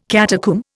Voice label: "catacomb", Indian

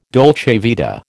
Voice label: "dolce vita", American

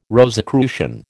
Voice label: "Rosicrucian", American

